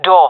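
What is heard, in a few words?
"door", female voice; player vo jungle fem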